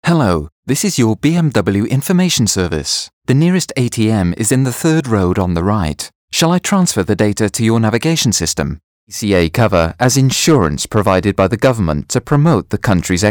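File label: voice over corporate mix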